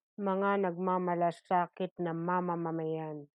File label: Tagalog; concerned citizens; mga nagmamalasakit na mamamayan